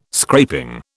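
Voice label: "scraping", British English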